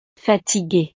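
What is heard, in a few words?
"fatiguee", French; estados animo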